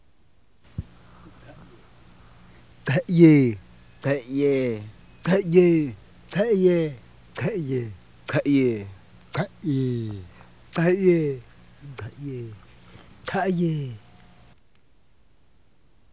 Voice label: xoo breathy laryngealized